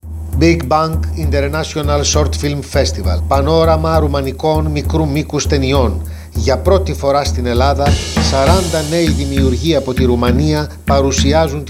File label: Radio Spot